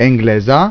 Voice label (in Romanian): "engleza", romanian